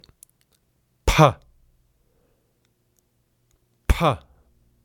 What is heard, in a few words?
"pha", sanskrit